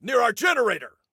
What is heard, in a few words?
Warn Enemy Near Our Generator, Soldier Male; Soldier Male 02